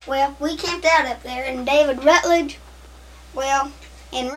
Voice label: Conversation with 13 year old white male West Virginia; american english dialect